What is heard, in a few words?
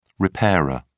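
"repairer", English, British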